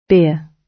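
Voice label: "beer", British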